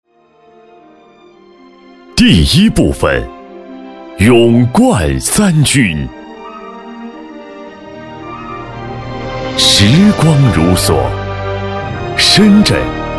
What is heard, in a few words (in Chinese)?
专题 政府 建委工作纪实 厚重, 男国